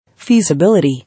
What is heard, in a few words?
"Feasibility", English